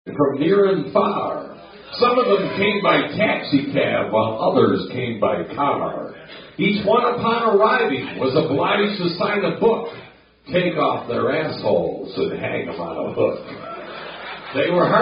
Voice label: Dog Poem